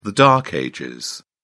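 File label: "dark ages", British English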